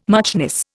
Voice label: "muchness", Indian